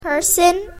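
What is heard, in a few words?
"person", English word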